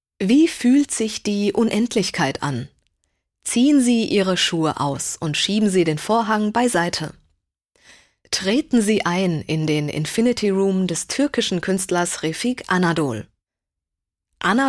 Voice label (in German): audioguide; negativer raum